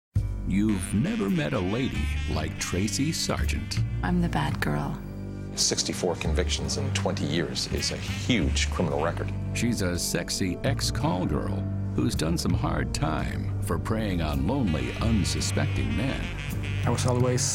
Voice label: narration reality